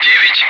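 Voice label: "9", Russian; Wingman; Digits; DCS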